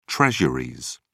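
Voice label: "treasuries", British English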